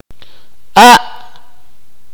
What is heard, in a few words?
"give", Akateko